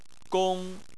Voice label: "gong1", Chinese; Chinese Surnames

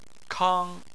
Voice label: "kang1", Chinese; Chinese Surnames

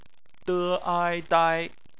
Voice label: "dai", Chinese; bpmf

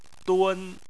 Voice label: "dun1", Chinese

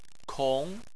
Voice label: "kong2", Chinese

